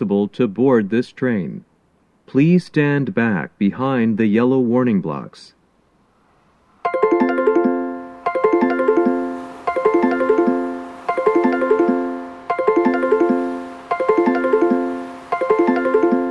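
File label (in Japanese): approach announcement pass, 接近放送 男声 通過電車; 放送は「通過電車」です。; 収録者:こたけ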